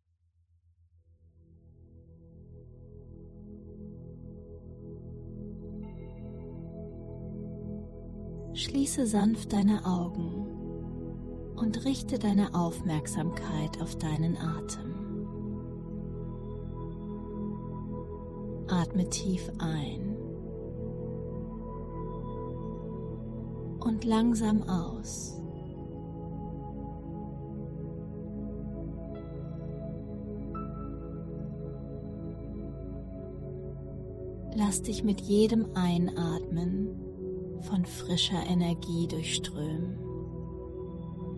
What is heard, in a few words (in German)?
Meditation; Quantenheilung Zwei Punkt